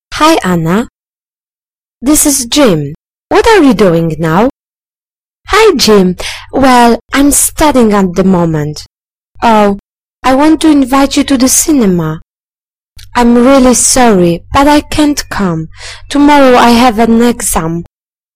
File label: dialog